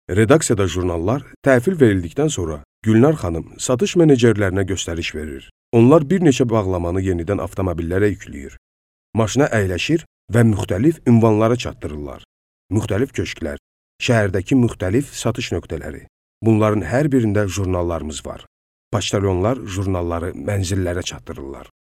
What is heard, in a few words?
Azeri